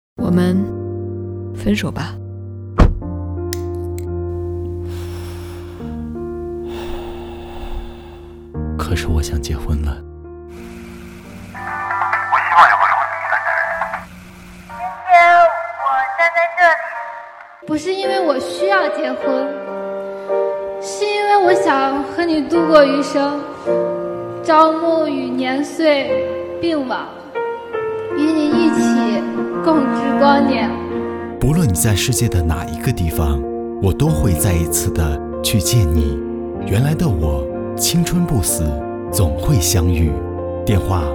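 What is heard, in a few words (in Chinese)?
广播商业广告婚恋; 泰安市广播电视台广播商业广告缘来的我婚恋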